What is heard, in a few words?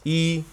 "Yi", one